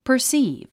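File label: "perceive", English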